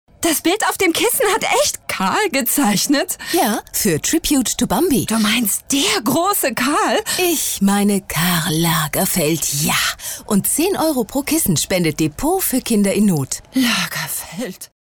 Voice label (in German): Ueberdreht